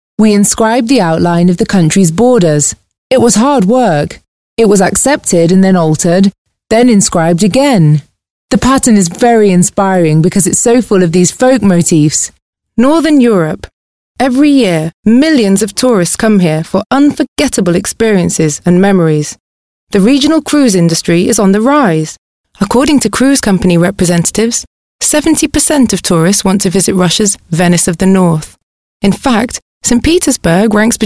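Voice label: english (british)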